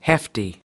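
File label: "hefty", American English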